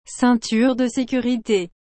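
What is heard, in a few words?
"ceinture de securite", French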